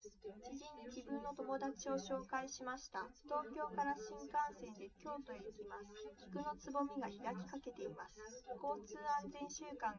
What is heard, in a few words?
female, live rec; SiSEC08; SiSEC underdetermined